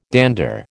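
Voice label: "dander", American English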